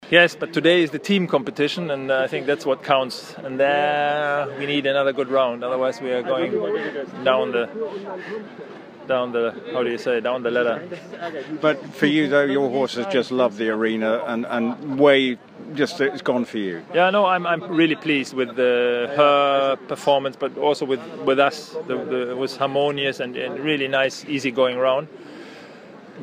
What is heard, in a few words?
eng; FEI Aachen Beerbaum rd2